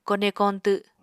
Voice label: "kone koñtw", Apatani